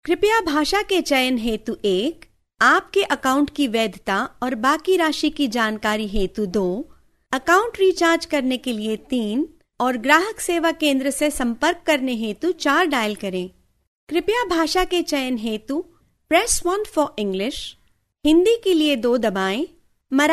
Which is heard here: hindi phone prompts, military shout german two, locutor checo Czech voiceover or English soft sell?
hindi phone prompts